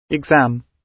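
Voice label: "exam", British English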